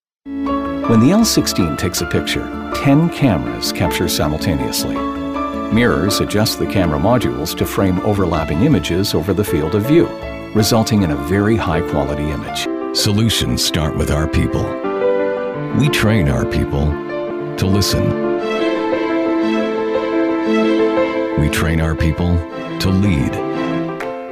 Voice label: english international narration; Voz masculina "neutral en inglés norteamericano" (sin acento regional).